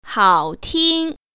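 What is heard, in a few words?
"hao3ting1", Mandarin Chinese Tone Pair Drills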